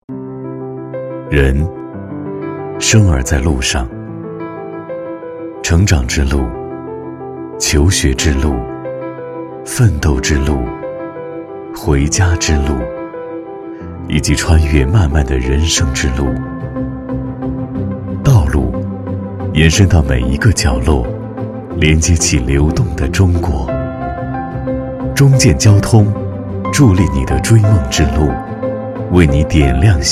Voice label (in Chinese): 男 走心独白